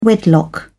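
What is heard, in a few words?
"wedlock", British English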